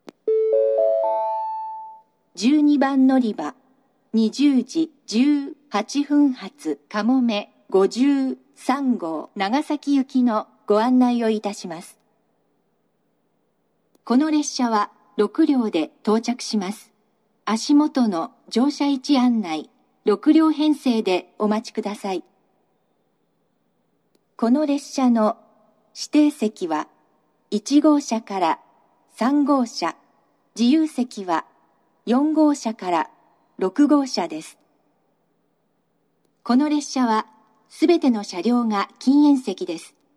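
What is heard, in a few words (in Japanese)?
12番のりば案内放送, 女声, 西九州新幹線ホーム 自動放送; 12番のりば案内放送（かもめ53号 長崎行き）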